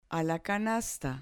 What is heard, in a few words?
"canasta", Spanish